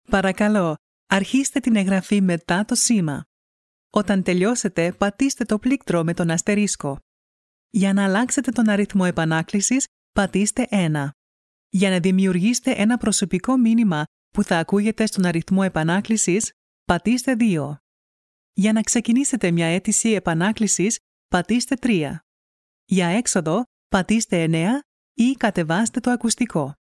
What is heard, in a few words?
Greek